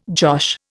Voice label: "josh", Indian